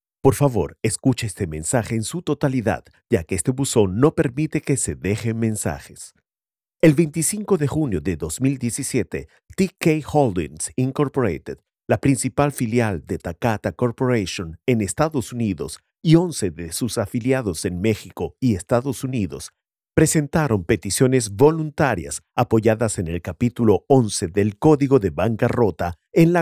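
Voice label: Spanish Latin American IVR; IVR/Phone systems; Male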